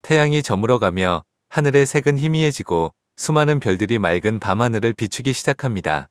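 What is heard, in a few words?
Korean; iOS 26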